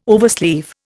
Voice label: "oversleeve", Indian